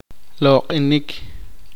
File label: poqomchi; Adorar